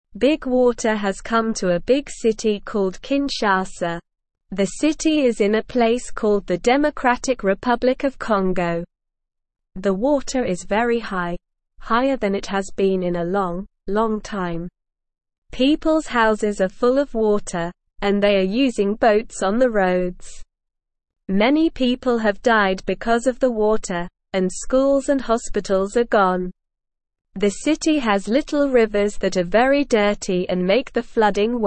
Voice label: Beginner SLOW Reading, English; English Newsroom